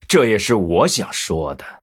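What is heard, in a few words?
Male, Chinese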